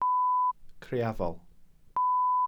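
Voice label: "criafol", Welsh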